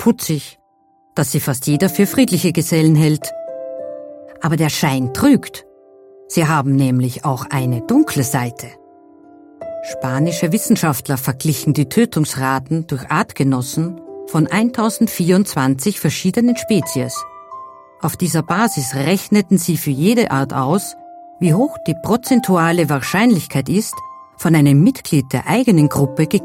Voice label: Doku Niedliche Tiere mit Musik; Sprecherdatenbank

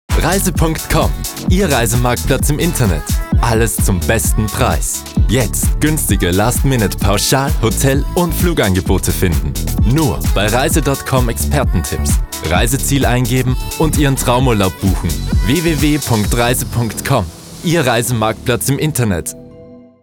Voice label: WERBUNG REISE; Sprecherdatenbank

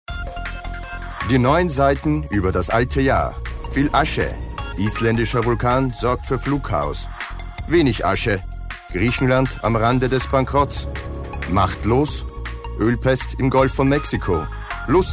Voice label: NEWS; Sprecherdatenbank

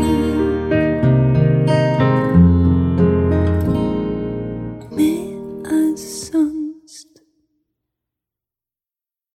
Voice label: Werbung SOLO; Sprecherdatenbank

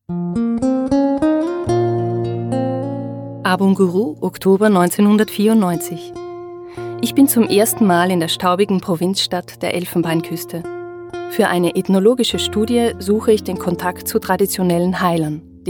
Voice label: Reportage; Sprecherdatenbank

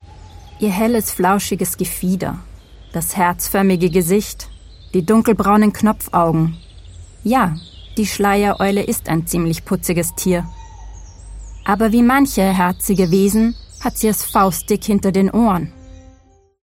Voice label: DOKU SCHLEIEREULE; Sprecherdatenbank

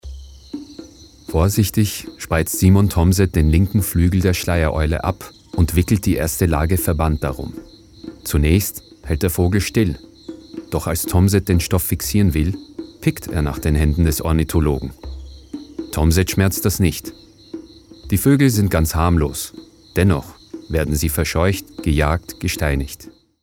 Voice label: DOKU; Fluch verfolgter Eulen; Sprecherdatenbank

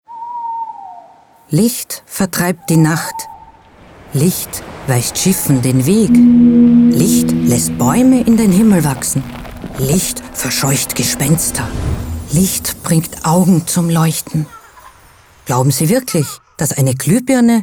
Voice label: Werbung ohne Musik; EXPON; Sprecherdatenbank

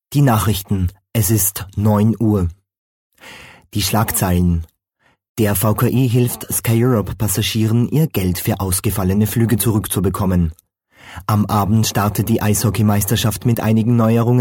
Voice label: Nachrichten; Sprecherdatenbank

